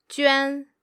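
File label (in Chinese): "juan", Mandarin Chinese, female; pinyin traps and pitfalls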